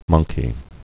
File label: "monkey", English